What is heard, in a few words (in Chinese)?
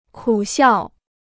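"苦笑" Mandarin Chinese; kǔ xiào; to force a smile; a bitter laugh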